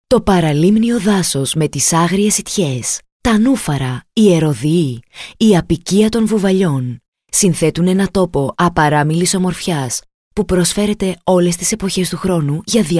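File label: Greek narration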